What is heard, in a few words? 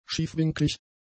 "schiefwinklig", German; adjectives